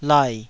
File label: "lai3", Taiwanese syllable